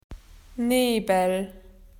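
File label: "fog", Australian English; PictureDictionary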